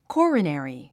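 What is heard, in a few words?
"coronary", English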